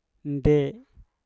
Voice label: "nde" mana, Mpur

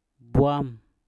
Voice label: "bwam" darat, Mpur

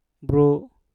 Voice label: "bru" lahir, Mpur

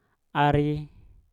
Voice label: "ari" berdoa, Mpur

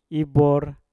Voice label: "ibor" berdiri, Mpur

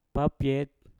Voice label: "papyet" hujan, Mpur

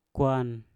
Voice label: "kwan" masak, Mpur

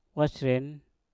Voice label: "wasren" cepat, Mpur

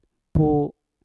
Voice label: "put" hilang, Mpur

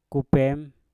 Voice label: "kupem" panas, Mpur

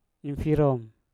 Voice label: "infirom" kasian, Mpur

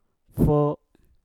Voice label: "fo" bangun, Mpur

